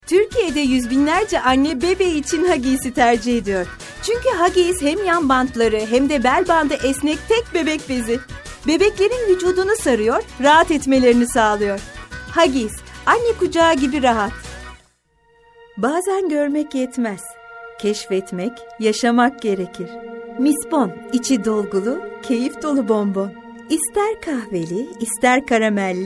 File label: turkish f commercial